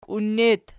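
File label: "kiun" locative, Archi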